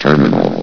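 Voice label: "terminal", English, station announcer voice; S.P.L.U.R.T-Station-13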